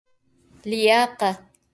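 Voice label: "لياقة" Arabic; fitness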